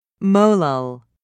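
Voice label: "molal", British English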